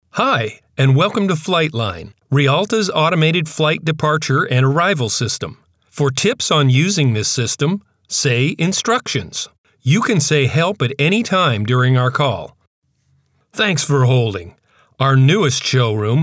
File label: telephone IVR